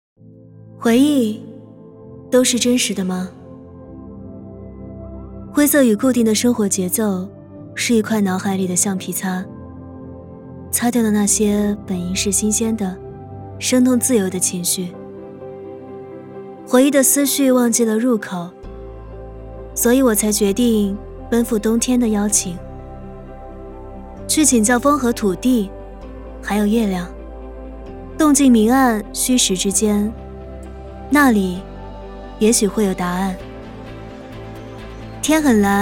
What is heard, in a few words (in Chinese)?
旁白 品质 走心